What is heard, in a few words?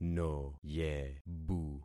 "noyebu", concat syllables; Affixing experiment